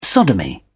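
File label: "sodomy", British English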